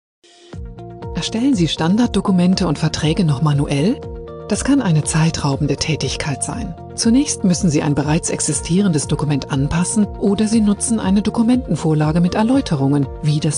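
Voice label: German, female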